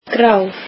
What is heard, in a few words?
icelandic grey